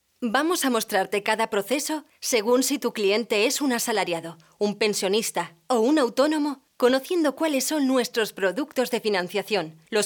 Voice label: locutora castellana

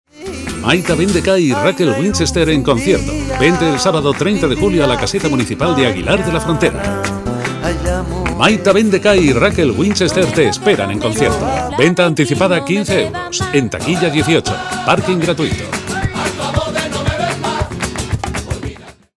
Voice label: promo musical locutores

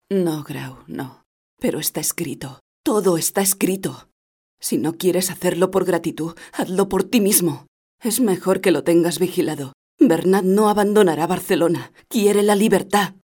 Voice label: locutora interpretacion

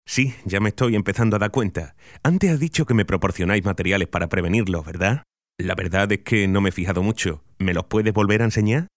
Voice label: locutor andaluz; Locutores andaluces

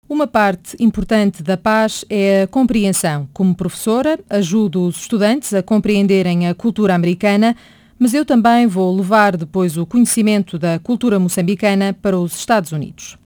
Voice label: locutora portuguesa

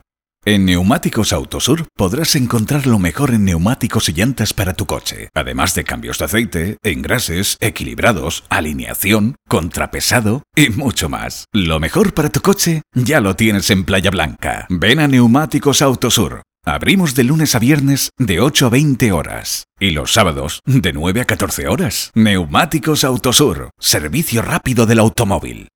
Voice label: locutor spain; locutores españoles. Grupo B

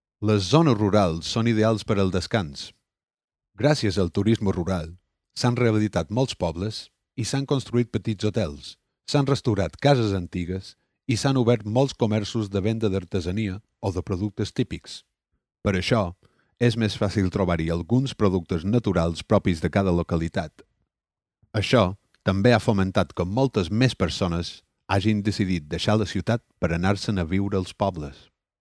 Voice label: locutor catalan mallorquin

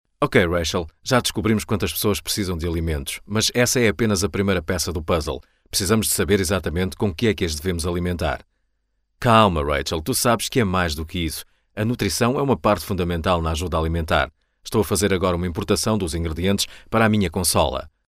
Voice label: locutores portugueses

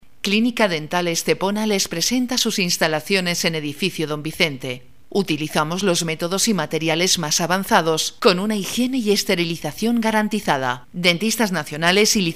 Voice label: locutora spain

